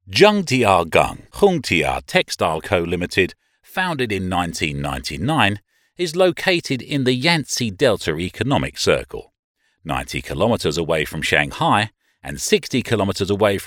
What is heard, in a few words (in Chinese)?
英文; 恒佳纺织